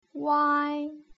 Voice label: "uai", putonghua